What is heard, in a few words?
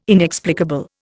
"inexplicable", Indian